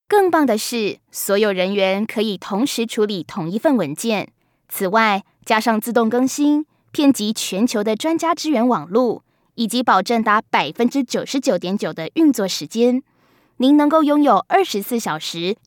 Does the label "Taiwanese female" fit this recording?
Yes